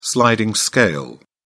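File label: "sliding scale", British English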